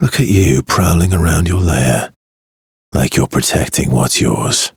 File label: Ambient Barks, en; Warframe; Mall Romance; Arthur